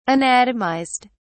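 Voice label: "anatomized", English, British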